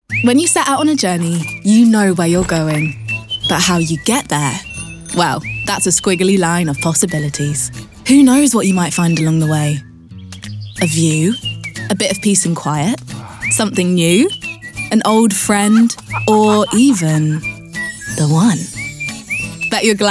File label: COMMERCIAL